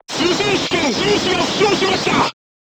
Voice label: voice message machine gunner wounded, crew tanks japanese